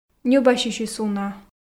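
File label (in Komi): ньӧбасьысьыс уна, Komi, female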